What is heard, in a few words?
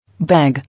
"Bag", English